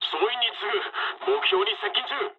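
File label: near waypoint mood low, speech japanese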